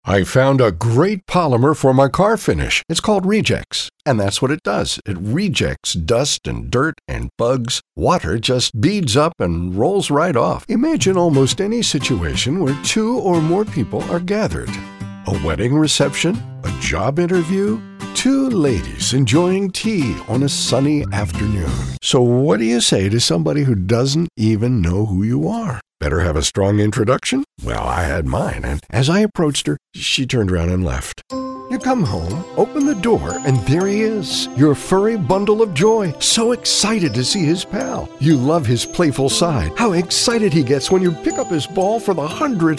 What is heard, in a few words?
non-announcer